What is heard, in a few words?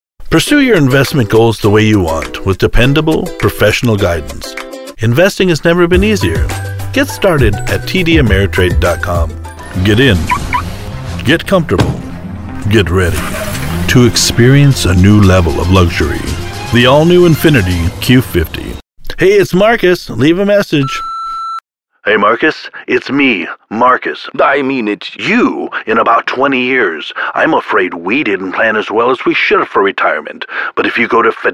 COMMERCIAL, American Voice Over